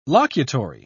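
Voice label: "locutory", English, British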